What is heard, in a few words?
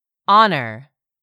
"honor", English; 3000 words